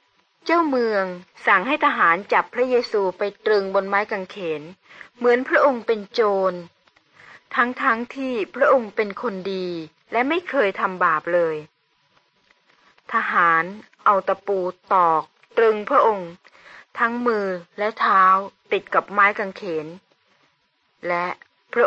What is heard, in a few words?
good news short thai central